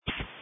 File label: Police Dispatch